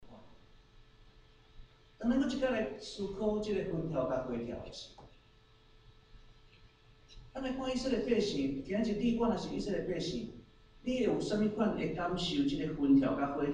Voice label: Message, taiwanese